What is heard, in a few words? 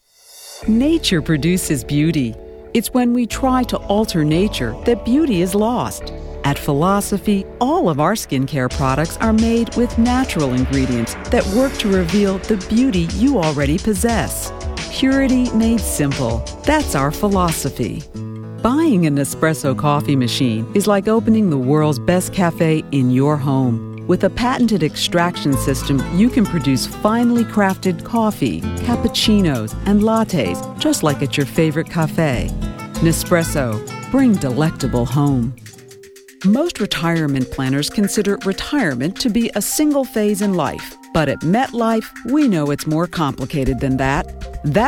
Commercial